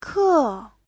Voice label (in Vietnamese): "ke", Hán ngữ; (danh từ) môn, bài